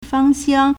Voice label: "fang1xiang1", Chinese

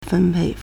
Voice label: "fen1pei4", Chinese

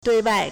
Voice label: "dui4wai4", Chinese

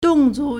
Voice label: "dong4zu2", Chinese

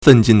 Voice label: "fen4jin4", Chinese

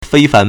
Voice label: "fei1fan2", Chinese

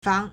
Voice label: "fang2", Chinese

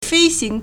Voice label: "fei1xing2", Chinese

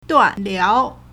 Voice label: "duan4liao2", Chinese

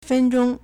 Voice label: "fen1zhong1", Chinese

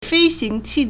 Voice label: "fei1xing2qi4", Chinese

